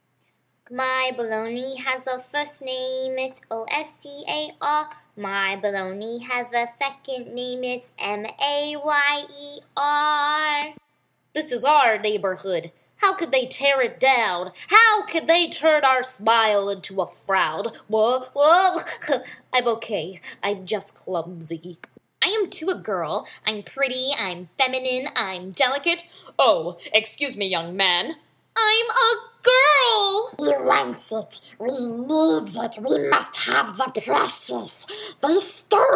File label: VO Character Impressions